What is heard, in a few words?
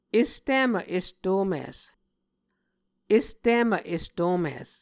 "estimv estomis", Muscogee